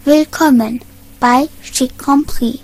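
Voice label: welcome, Brazilian Portuguese; gcompris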